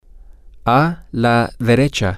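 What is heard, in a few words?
to the right, Spanish, male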